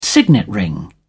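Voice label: "signet ring", English, British English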